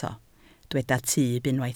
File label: "tyb", Welsh